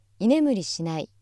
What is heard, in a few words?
female